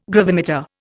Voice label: "gravimeter", Indian

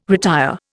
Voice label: "retire", Indian

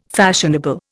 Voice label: "fashionable", Indian

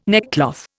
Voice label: "neckcloth", Indian

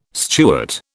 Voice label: "Stuart", British

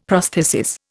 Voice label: "prosthesis", Indian; Dictionary

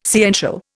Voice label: "sciential", Indian

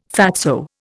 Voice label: "fatso", Indian

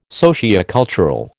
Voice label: "sociocultural", American

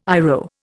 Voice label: "IRO", Indian

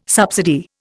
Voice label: "subsidy", Indian

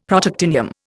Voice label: "Protactinium", Indian; Dictionary

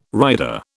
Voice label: "rider", British

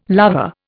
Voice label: "lover", Indian

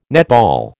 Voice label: "netball", American

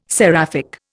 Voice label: "seraphic", Indian

